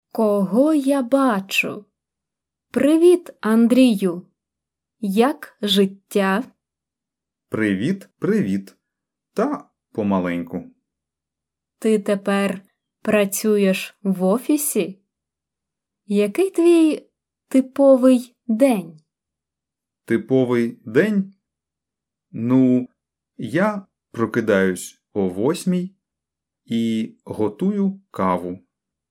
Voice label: Dialogue Slow